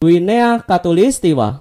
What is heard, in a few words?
"equatorial guinea", id; gcompris-data; geography